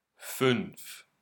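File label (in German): "Fünf", German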